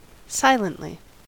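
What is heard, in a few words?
"silently", American English; pronunciations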